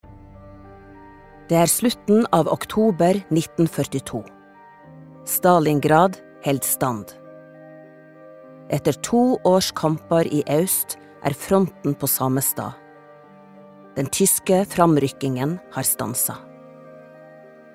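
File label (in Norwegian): no dokumentar, Norwegian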